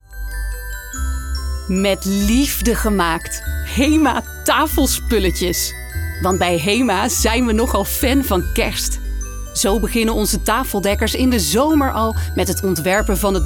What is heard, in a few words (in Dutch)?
Commercieel vrolijk Kerstversiering met muziek Voice-over Stemactrice